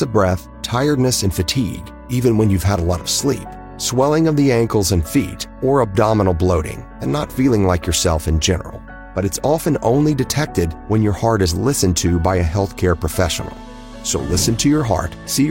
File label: Valve Disease Awareness Day PSA